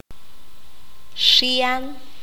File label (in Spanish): "agudo", Q'anjob'al